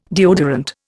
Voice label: "deodorant", Indian